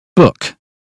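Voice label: "book", British phonetics; 英语音标发音（剑桥版）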